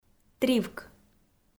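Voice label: "тривк", Komi, female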